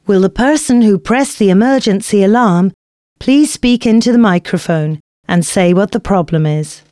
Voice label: announcements female

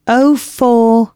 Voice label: announcements female

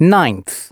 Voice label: announcements male

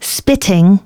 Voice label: female, announcements

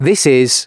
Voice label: announcements, male

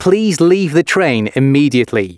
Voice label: announcements, male

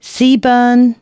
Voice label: announcements, female

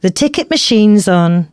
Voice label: announcements, female

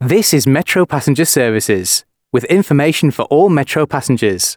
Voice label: announcements, male

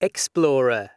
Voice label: Explorer, announcements male

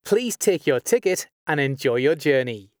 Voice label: take tick, announcements male

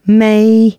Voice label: female, announcements

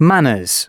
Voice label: announcements male

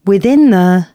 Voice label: announcements, female

